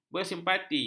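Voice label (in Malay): "bersimpati", Malay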